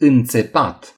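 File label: "sting", Romanian; gcompris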